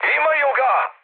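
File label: Serbian aircraft destroyed mood high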